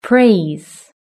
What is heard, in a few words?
"praise", british english